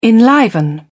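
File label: "enliven", British English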